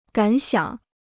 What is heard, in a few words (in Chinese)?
"感想", Chinese